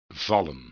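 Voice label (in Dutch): "vallen", Dutch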